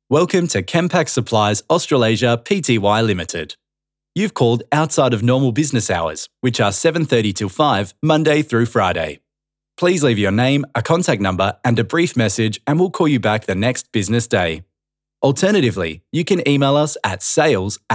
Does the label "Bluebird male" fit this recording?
No